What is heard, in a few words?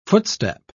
"footstep", pronounce